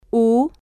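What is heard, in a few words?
"u", spanish, female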